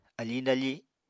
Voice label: "afiñ dafi", apatani